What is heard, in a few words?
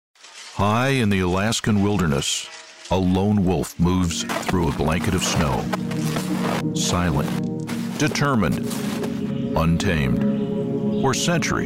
NARRATION